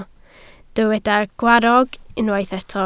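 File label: "gwarrog" Welsh, spoken word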